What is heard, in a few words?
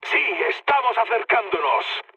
near waypoint, mood med, speech; War Thunder